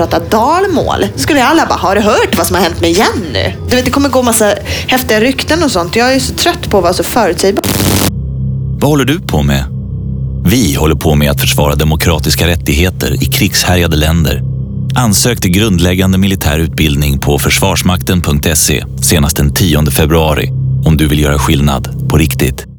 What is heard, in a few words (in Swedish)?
tjejerna dialekt; fm; demokrati; shutter